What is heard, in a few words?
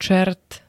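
"čert", Slovak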